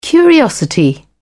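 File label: "curiosity", British English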